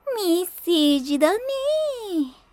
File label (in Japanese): メッセージだねぇ, ASMR